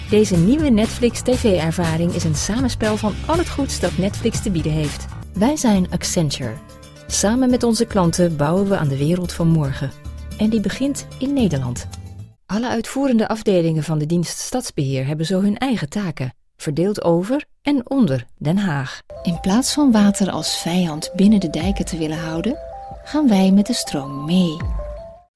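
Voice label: Dutch, narration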